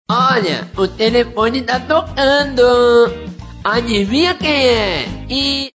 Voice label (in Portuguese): fanho, fanho atende o telefone